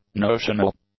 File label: "notional", english; adjectives